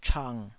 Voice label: "chang3", taiwanese